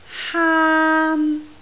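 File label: "haan1", Cantonese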